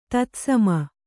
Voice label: "ತತ್ಸಮ", kannada